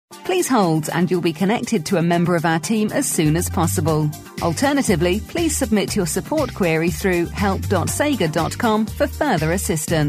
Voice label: female